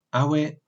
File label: Walloon yes